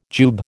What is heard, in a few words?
"jube", American